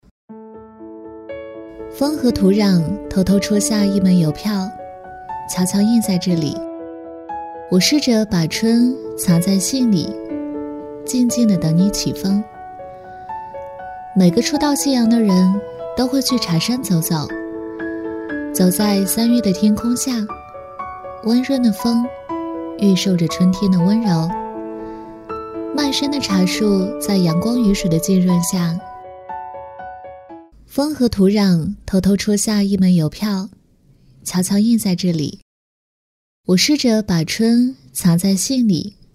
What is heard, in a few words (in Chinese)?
女 文旅清新; 文旅配音; 春日来信